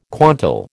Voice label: "quantal", American